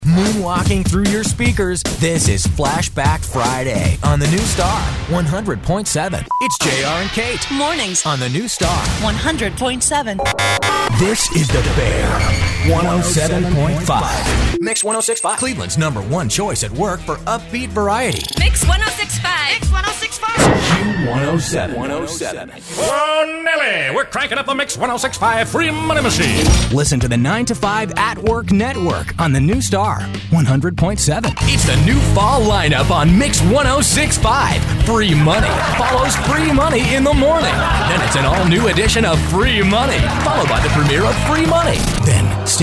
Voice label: Hot AC, radio imaging

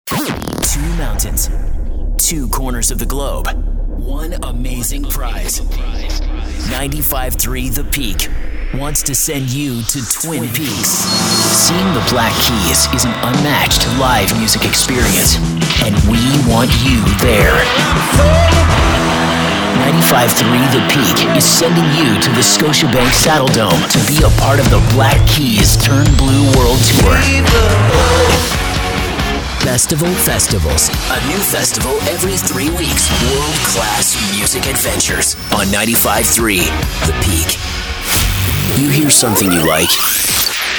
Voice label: radio adult alternative, radio imaging

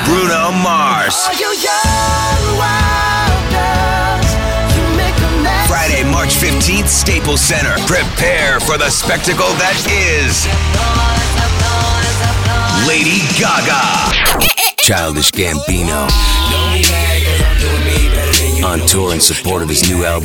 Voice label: radio men concert; radio imaging

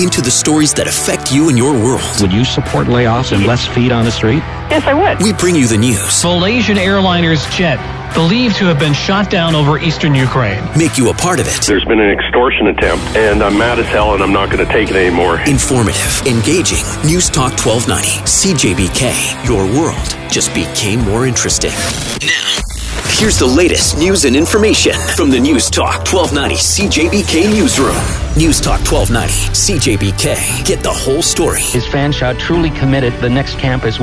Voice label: radio newstalk, radio imaging

